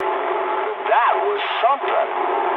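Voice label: English trick mood med; speech; War Thunder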